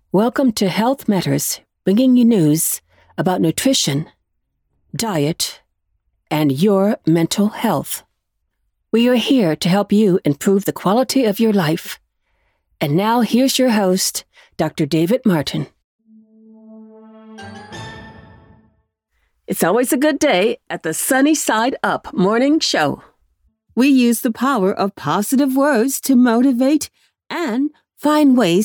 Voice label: podcast clear upbeat smooth conversational